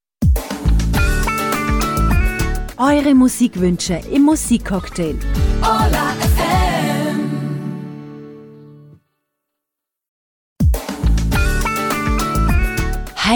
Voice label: Jingles Radio IDs Samples